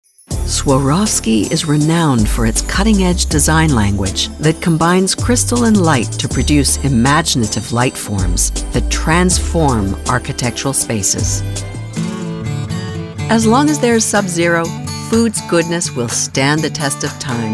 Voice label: Explainer